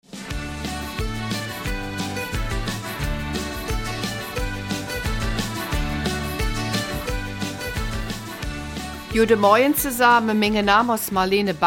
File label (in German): Eifeler Mundart; Porträt